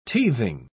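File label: "teething", British English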